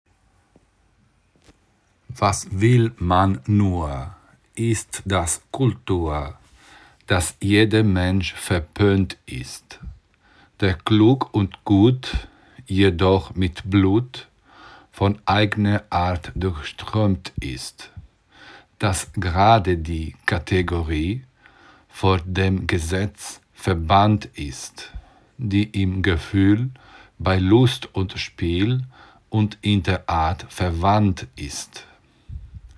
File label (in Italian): lettura testo; pronuncia del tedesco; LENTA lettura del testo